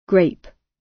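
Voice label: "grape", British; Flora and fauna, agriculture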